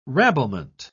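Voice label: "rabblement", English, uk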